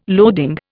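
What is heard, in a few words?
"loading", Indian English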